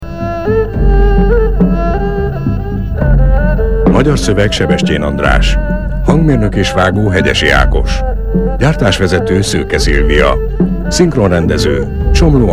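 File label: hungarian documentaries, Hombre; Documentales; hungarian voice actors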